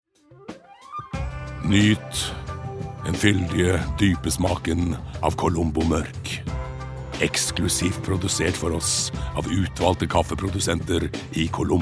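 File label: Norwegian soft sell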